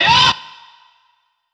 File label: vocal yea kid